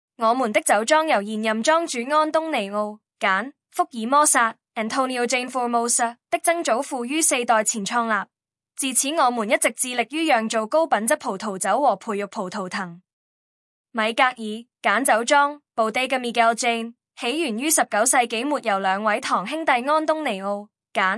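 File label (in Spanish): historia, Chinese Traditional; historiabodega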